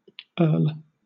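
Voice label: "earl", British English; pronunciation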